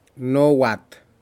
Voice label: fish convict Surgeonfish, Aneityum